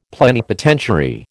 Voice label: "plenipotentiary", American